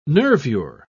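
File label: "nervure", British English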